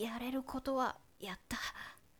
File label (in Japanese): shonen yarerukotowayatta